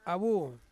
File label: "awu", Ansus; betel nut